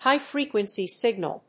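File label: "high-frequency signal", English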